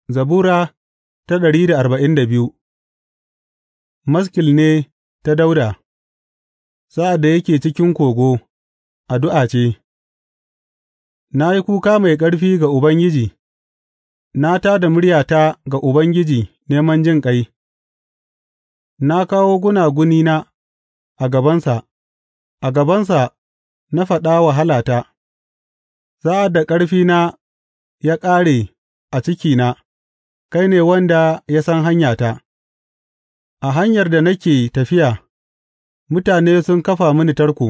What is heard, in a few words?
hausa bible drama, psalms; hausa bsn nt drama